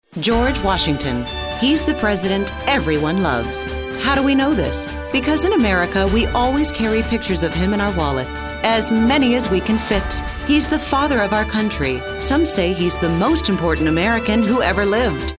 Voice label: perky

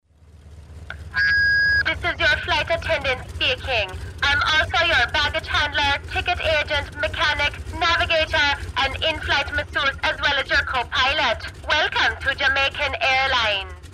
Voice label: jamaican

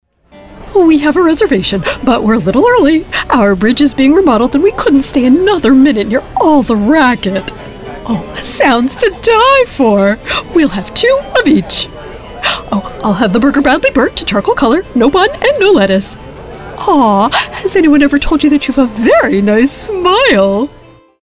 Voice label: Character; Vampirina

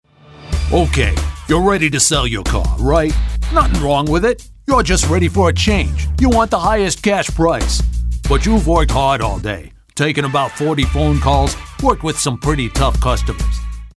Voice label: Tough Guy

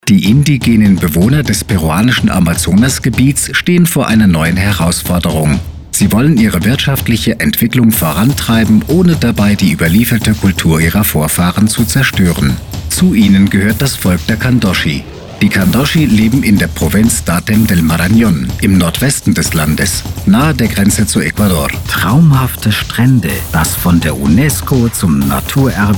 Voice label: Documentary

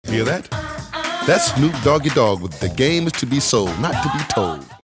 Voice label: upbeat

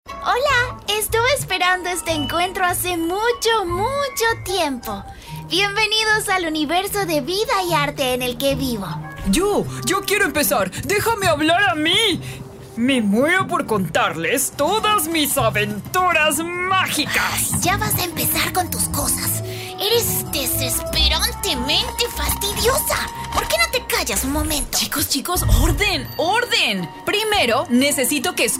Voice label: Spanish Character Showcase

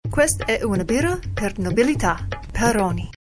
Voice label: italian Commercial

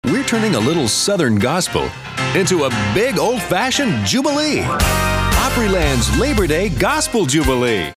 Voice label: upbeat

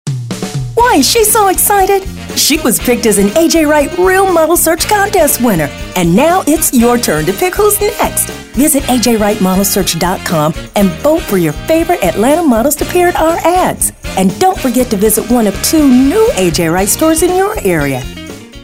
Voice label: announcer

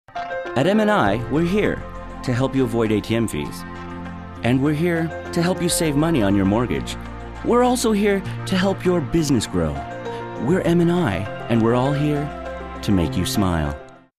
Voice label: friendly

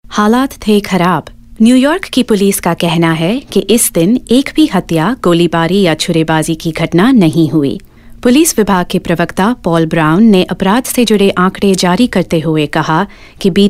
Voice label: Hindi

